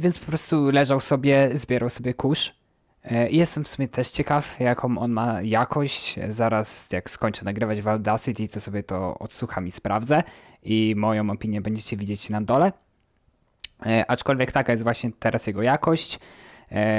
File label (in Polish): mikrofon; mchose v9 turbo